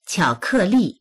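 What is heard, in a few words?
"qiao3ke4li4", Chinese; qiǎokèlì; 巧克力; チョコレート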